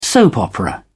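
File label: "soap opera", British English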